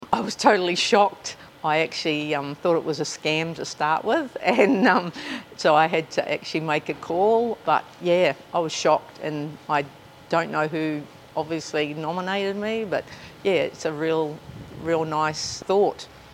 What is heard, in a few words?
Radio Grab